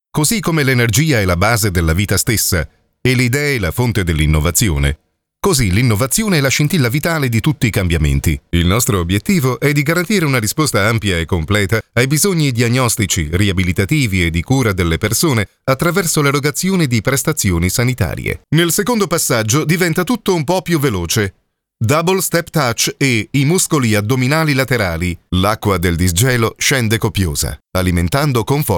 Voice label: voice-over